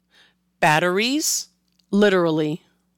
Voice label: Batteries and Literally, pronunciation

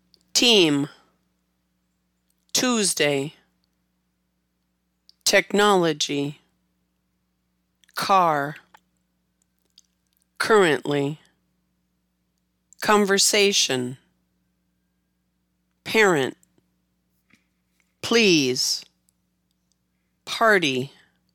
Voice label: plosives; plosive pronunciation

